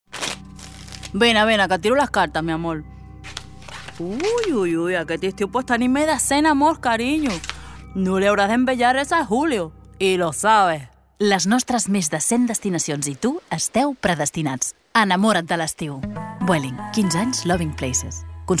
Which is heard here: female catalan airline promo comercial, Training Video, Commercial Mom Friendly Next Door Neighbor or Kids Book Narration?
female catalan airline promo comercial